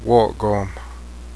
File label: redheaded woodpecker, Cheyenne